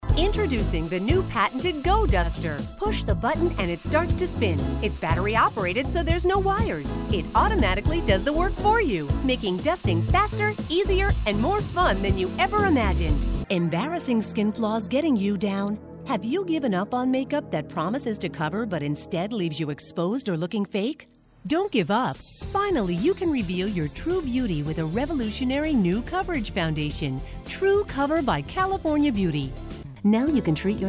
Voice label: Infomercial